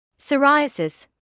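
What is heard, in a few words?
"psoriasis", British English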